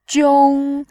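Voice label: "jiong", Mandarin, first tone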